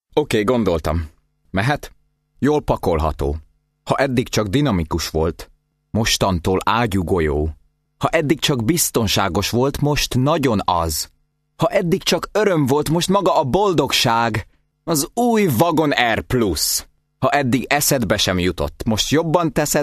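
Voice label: Hungarian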